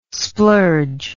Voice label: "splurge", english

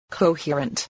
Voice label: "coherent", voice

